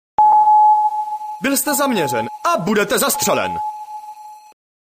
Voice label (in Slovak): sms hlásky cz